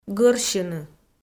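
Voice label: "гӧрсьыны", Komi, female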